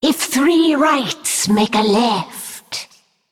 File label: Female Naga